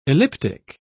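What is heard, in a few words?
"elliptic", British English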